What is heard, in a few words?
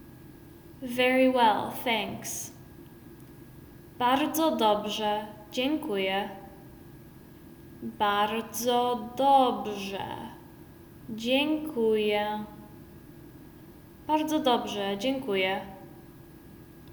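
Very well thanks, Polish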